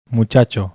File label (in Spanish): "muchacho" bizkaia, masculino; spansk; fonetica